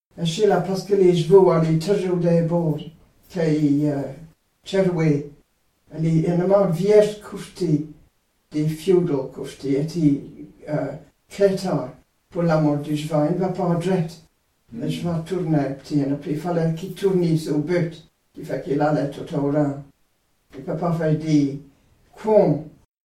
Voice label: Speaker talks about fields and ploughing with horses in the past